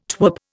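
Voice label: "twerp", Indian English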